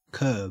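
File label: "kerb", Australian English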